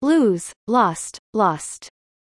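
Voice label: "lose lost lost", English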